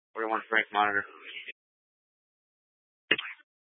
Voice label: Police Dispatch

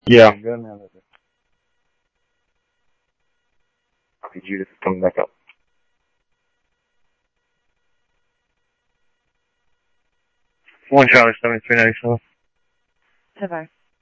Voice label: Mesa Simulcast, MESA PD, scanner audio

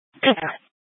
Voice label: Police South Dispatch, scanner; Glendale Police

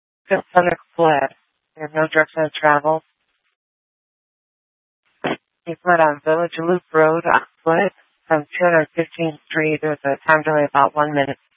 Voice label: Sheriffs Office, scanner

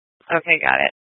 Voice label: Mesa PD Dispatch; Fiesta

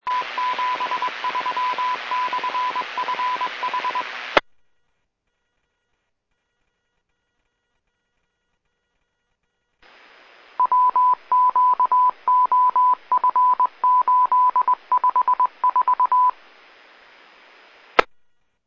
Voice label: Fire Dept, scanner; RMFD F-5; Rural-Metro Fire

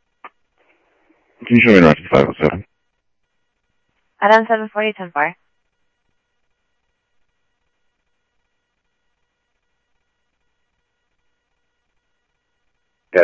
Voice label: scanner audio trunk

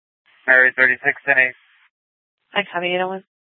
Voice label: Superstition Dispatch, Mesa PD

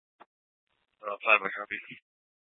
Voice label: PD Dispatch

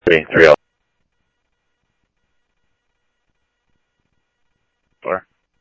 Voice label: PD Police, scanner audio

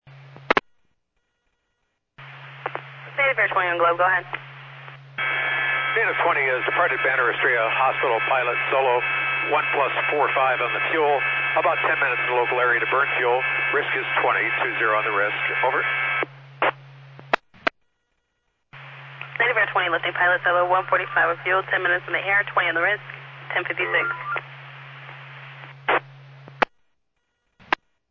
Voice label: Medevac, scanner; NativeAirAmb

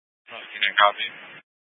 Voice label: Scottsdale Police, Simulcast

